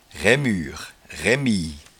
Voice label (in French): "raimure", patois jura